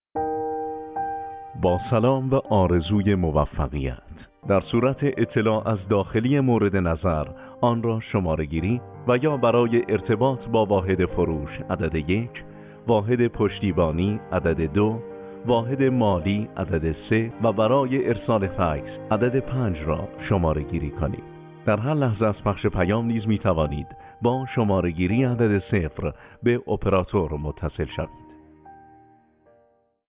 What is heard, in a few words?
Public IVR menu